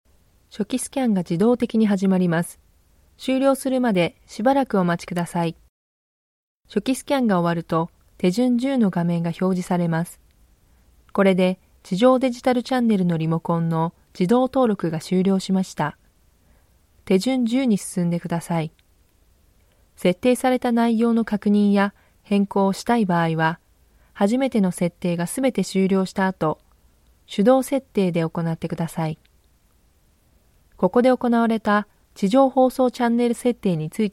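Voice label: Japanese Female Product Instruction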